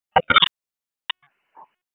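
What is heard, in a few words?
Comm Center Intersystem, radio; wake; p25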